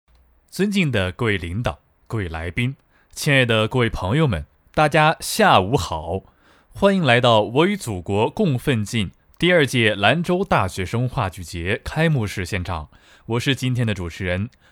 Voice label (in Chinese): 中文主持词